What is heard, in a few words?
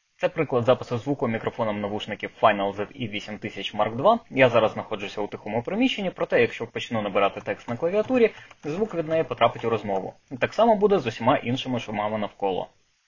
mic test